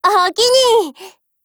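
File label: PHRASE, Japanese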